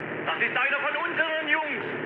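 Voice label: German player friendly fire mood high, speech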